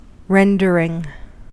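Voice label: "rendering", US English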